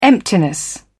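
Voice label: "emptiness", British English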